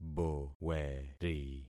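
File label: "boweri", concatenated syllables; Affixing experiment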